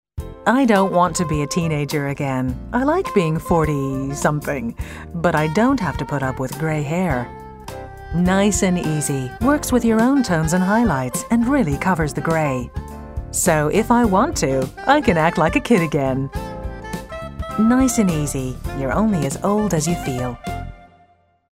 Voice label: commercial nice easy, voiceover